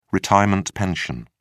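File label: "retirement pension", British English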